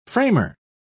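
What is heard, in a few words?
"framer", English, British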